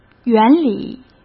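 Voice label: "原理", Mandarin Chinese; chinese-flashcards; hsk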